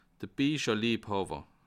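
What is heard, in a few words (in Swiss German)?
"biecherliebhawer", Bas Rhin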